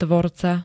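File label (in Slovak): "tvorca", Slovak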